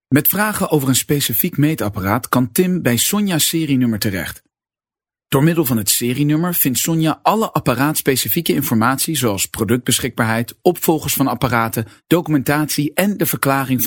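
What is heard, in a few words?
Dutch neutral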